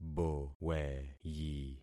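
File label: "boweyi", concatenated syllables; Affixing experiment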